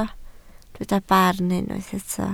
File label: "barn", Welsh